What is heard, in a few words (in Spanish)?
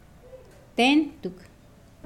Nawat palabras lleno; pipil